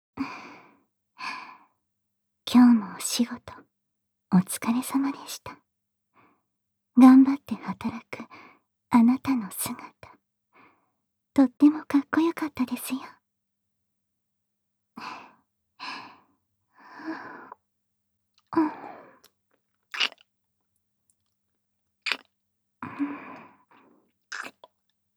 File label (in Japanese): ラブラブおやすみボイス, ASMR; ミリア